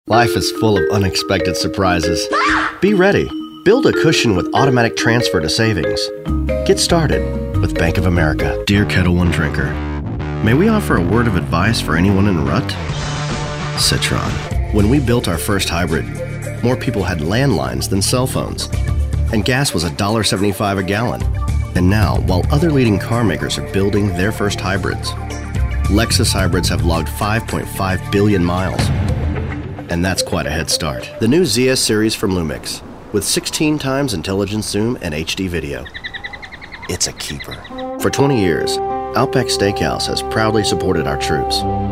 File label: Commercials